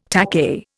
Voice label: "takahe", Indian

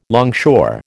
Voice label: "longshore", American

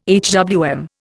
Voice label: "HWM", Indian

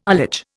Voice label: "ullage", Indian

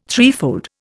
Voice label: "threefold", Indian

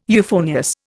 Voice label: "euphonious", Indian

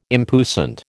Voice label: "impuissant", American

